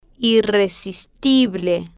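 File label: "irresistible", slow, Spanish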